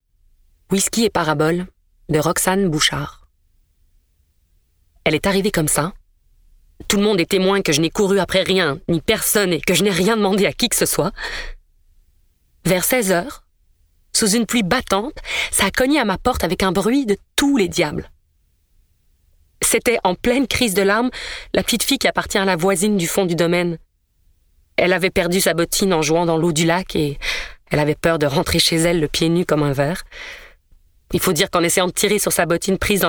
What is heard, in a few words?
NARRATION